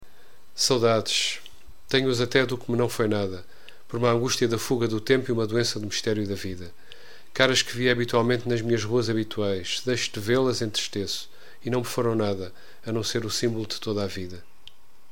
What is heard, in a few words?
saudades voz, portuguese; F Pessoa; Desassossego